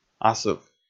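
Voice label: "асыв", Komi, male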